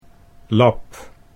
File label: "lab", Dutch